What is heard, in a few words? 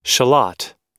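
"shallot", US English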